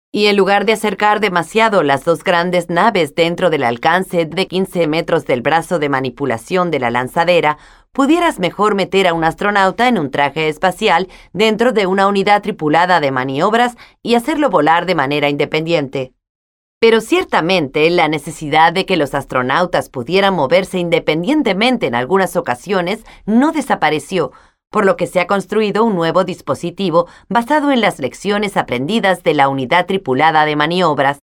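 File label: spanish latin american female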